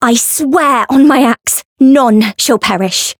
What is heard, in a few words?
Voice variable chant